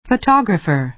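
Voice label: "photographer", American English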